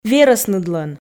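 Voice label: "верӧсныдлӧн", Komi, female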